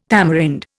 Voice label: "tamarind", Indian

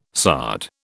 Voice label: "sard", British

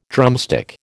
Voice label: "drumstick", American

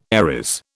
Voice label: "Aries", British

